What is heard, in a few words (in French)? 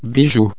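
"bijoux", French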